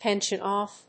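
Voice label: "pension off", English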